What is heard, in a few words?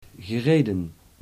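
"gereden", Dutch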